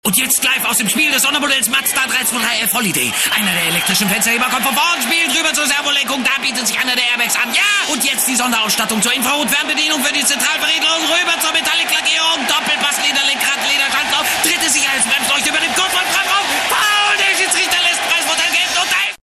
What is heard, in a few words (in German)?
Funkspot, sprecher radiowerbung; Mazda